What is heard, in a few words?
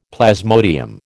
"plasmodium", American